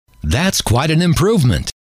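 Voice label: that S Quite An Improvement, male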